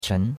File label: "chen2", chinois; Cours de Chinois